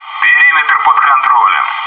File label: "front room", bot